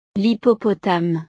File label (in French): "l hippopotame", frances